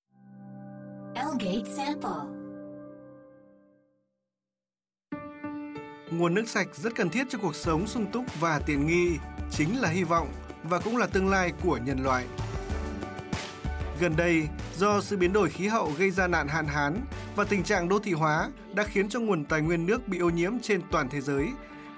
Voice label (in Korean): 베트남 남 힘있는톤; 휴비스워터